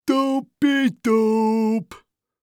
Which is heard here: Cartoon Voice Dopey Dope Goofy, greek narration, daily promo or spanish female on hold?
Cartoon Voice Dopey Dope Goofy